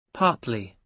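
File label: "partly", British English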